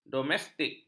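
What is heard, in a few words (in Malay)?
"domestik", Malay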